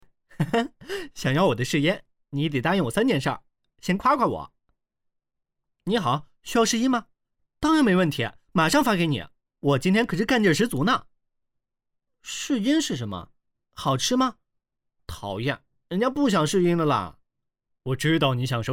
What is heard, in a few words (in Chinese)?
角色音